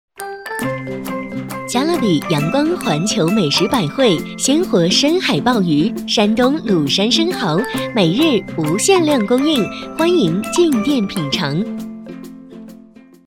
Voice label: 广告美食

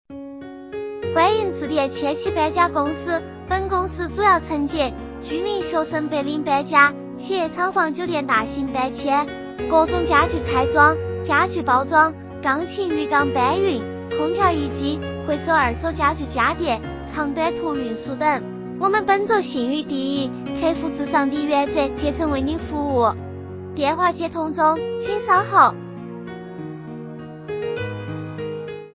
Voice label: 四川话女

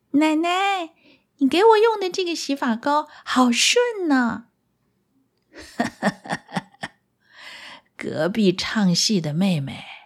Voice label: 人物对白孙女与奶奶是同一人

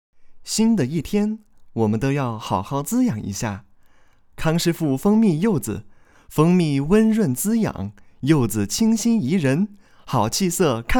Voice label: 饮品类广告配音

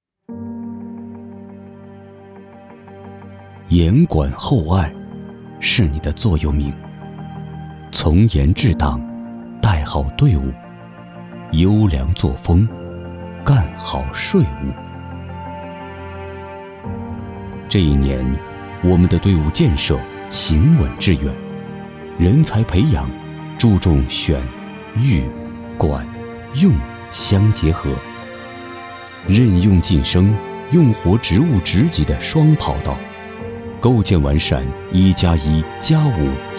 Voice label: 宣传

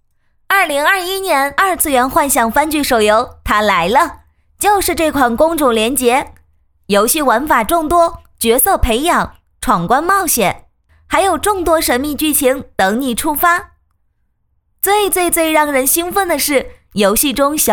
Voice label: 游戏推广试音

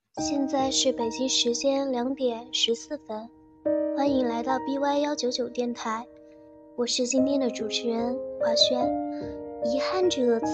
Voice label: 电台

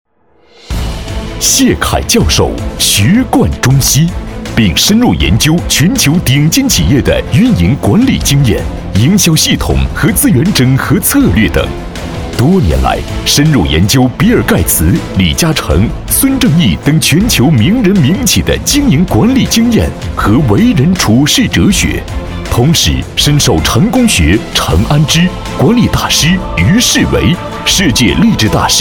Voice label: 专题浑厚力度; 财富智慧

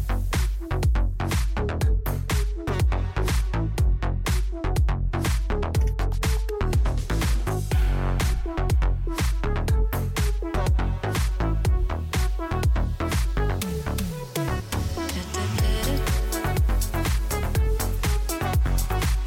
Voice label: 粤语广告促销 开业 国粤语; 途虎电白

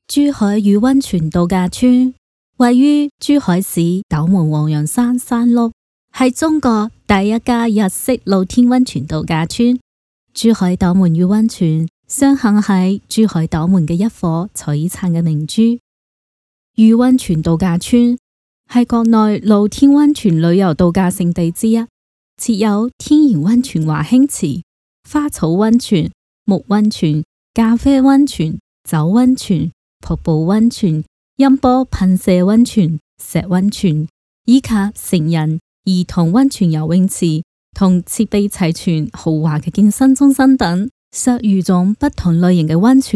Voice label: 粤语 练习; 粤语珠海练习

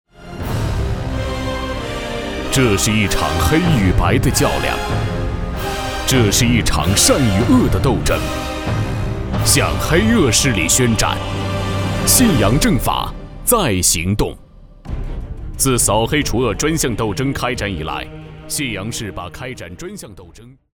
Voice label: 党政汇报正气

